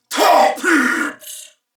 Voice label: Attack Male, en; Corpus Venus; Warframe; CorpusVenusMaleB

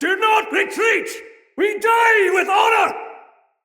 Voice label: fearful cry afar, soldier male; Dendrit

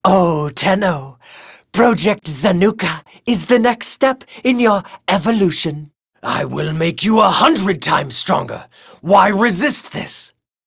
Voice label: Taunt Neutral

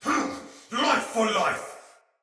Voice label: Pre Death, Soldier Male; Battle; Barks; Dialog; Sinecure Soldier Male B

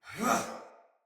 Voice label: Attack, Soldier Male; Battle; Barks; Dialog; Sinecure Soldier Male B

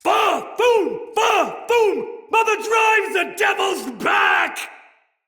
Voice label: Cry, Soldier Male; Dendrit

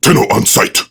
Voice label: Idle Barks Prime Grineer Male; Tau; Battle Barks

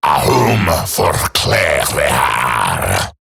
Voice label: Attack Eximus Grineer Male; Battle Barks

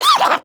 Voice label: Pain Grineer Jester; Warframe; Battle Barks

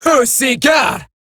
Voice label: Player Spotted, Female; Warframe; Eximus Grineer Female; Battle Barks

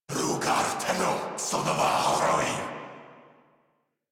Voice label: Player Spotted Corrupted Grineer Male

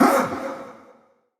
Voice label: Pain Spider, en; Mendicant Wazard

